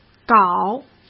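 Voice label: "搞", Mandarin Chinese; chinese flashcards; hsk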